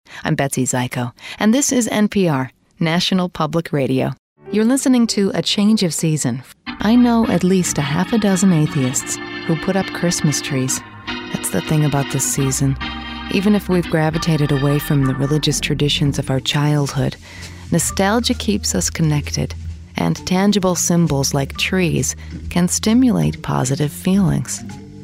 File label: Host Narration